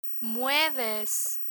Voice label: "mueves" Spanish; irregular spanish verbs; learn spanish